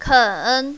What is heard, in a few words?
"ken3", mandarin, female